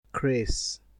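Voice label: "crase", Nigerian Pidgin, male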